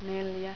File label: "neljä", Finnish